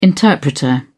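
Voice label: "interpreter", British English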